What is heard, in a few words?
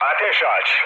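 Turkish attack position, mood low, speech; War Thunder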